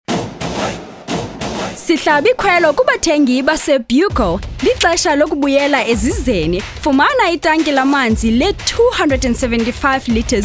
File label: voiceover